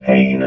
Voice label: "pain", robot